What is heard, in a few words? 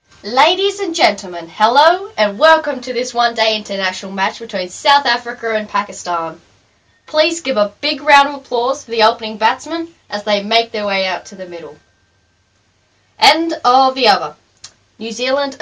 vocals english australian female